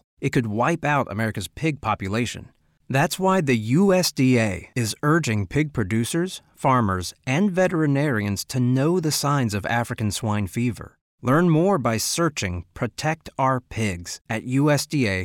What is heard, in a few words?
radio spot piggies